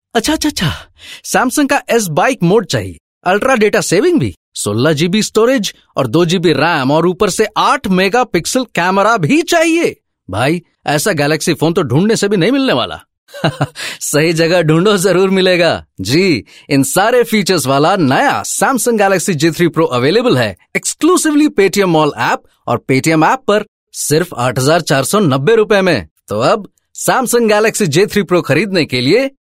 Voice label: hindi, male voice